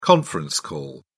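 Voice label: "conference call", British English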